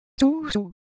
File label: Japanese Sound, Ideo Phones